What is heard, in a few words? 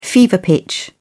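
"fever pitch", English, British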